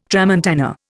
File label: "tramontana", Indian